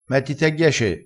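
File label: "a ghiace", Italian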